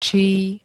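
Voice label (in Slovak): "čí", Slovak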